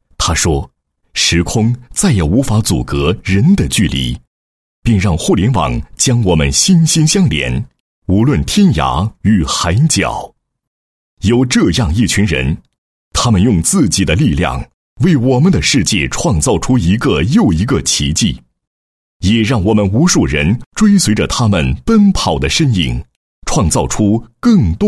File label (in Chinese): 男80 宣传广告, 国语男声配音; 榜样的力量 导师团队宣传; 央视农广